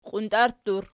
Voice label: "qiuntarttur", Archi